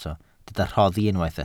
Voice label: "rhoddi", Welsh

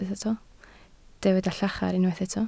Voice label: "llachar", Welsh

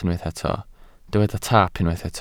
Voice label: "taap", Welsh

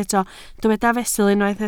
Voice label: "fesul", Welsh

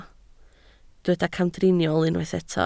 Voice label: "camdriniol", Welsh

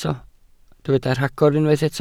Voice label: "rhagor", Welsh

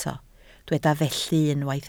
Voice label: "felly", Welsh

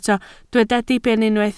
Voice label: "diben", Welsh

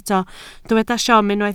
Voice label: "siom", welsh

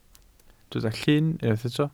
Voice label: "llun", Welsh

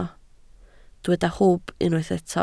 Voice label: "hwb", Welsh

